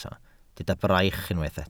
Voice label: "braich", Welsh